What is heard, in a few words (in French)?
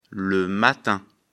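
"matin", French; afrikalan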